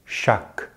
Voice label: "chaque", French; easypronunciation

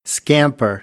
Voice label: "scamper", American English; ɚ - əɹ

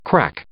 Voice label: "crack", English; verb

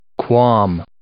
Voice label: "qualm", English

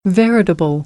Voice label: "veritable", American English; ə - ɪ

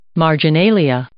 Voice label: "marginalia", English

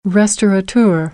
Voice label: "restaurateur", American English; ɚ - əɹ

